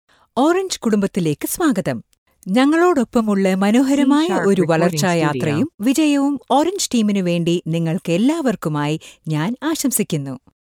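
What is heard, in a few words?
Malayalam Female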